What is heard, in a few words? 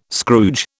"Scrooge", British